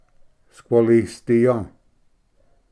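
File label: "squalisdiya", Cherokee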